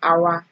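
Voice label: "our", Nigerian Pidgin, female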